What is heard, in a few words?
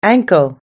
"ankle", us english